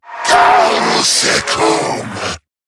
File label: Player Spotted, en; Zariman Wraith